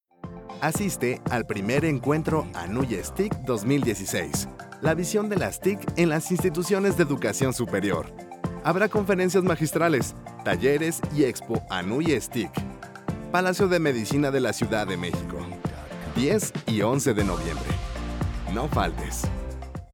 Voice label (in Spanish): Spot Radio